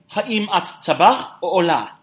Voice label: native, hebrew